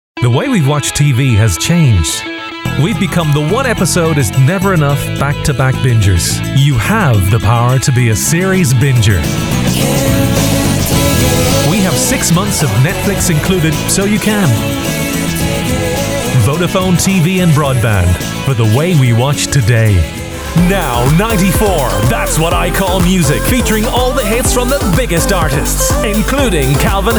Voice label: irish english commercial, male voices

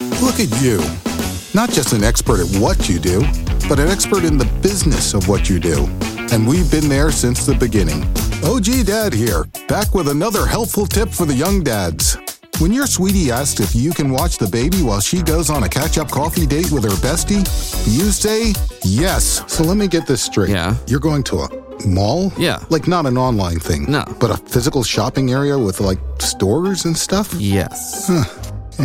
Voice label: Commercial, male voices

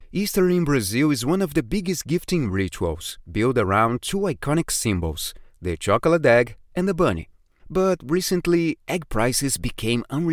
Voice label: brazilian portuguese voice reel, male voices

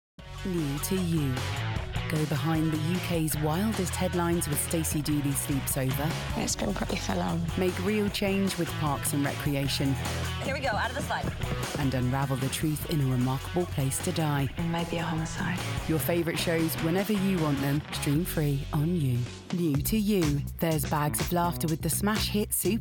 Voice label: english voice, female voices

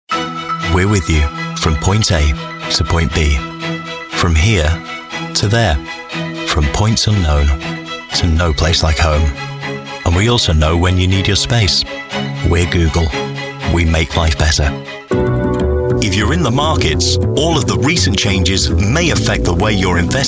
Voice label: Commercial, male voices

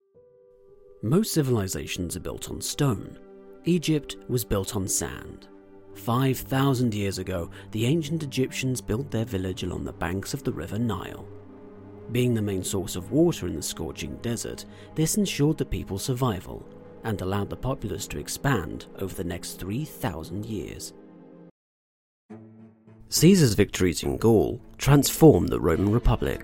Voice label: Narration, male voices

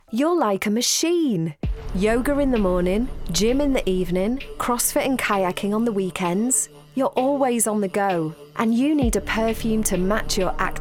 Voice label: female voices

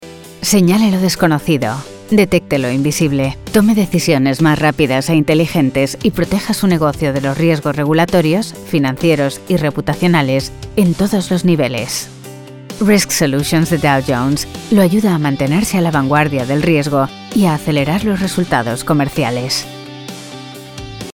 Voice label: Spanish, female voices

